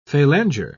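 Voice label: "phalanger", English, British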